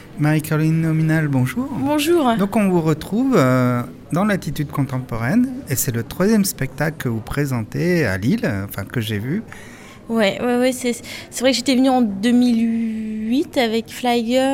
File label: Voice Over; Latitudes 2011